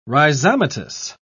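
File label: "rhizomatous", British English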